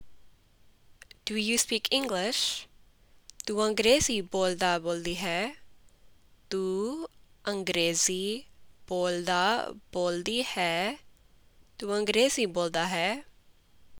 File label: Punjabi Do you speak English informal